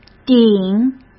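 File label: "顶", Mandarin Chinese; hsk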